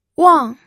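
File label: "wang4", Mandarin Chinese, pinyin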